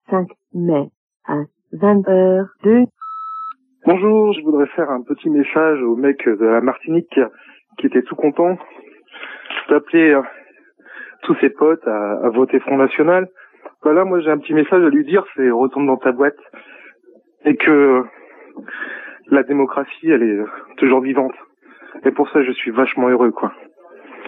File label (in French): Electeur FN repondeur